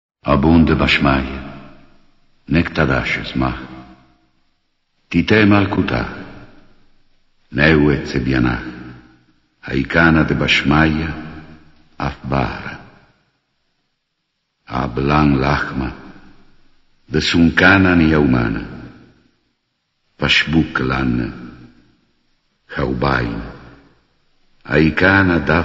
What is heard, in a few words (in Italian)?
padre nostro aramaico